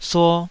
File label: "suo2", Taiwanese